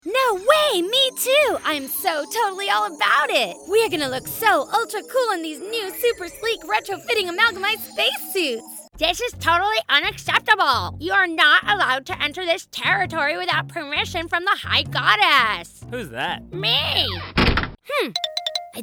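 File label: female character, voiceover, women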